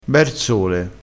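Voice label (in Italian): "bersole", dialettale crespinese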